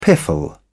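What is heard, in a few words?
"piffle", British English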